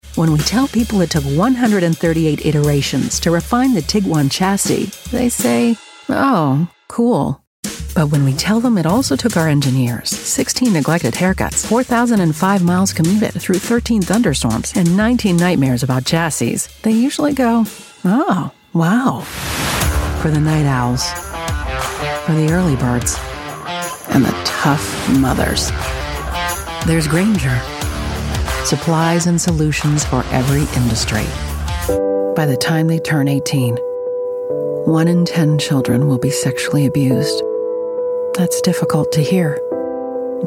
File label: northern American, commercial, American / Canadian Female Voice